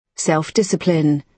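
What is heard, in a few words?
"self discipline", British English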